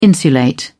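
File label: "insulate", British English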